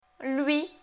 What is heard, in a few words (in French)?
"lui", francais; Leçon1. Rencontre